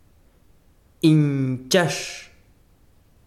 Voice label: besiro rodilla, chiquitano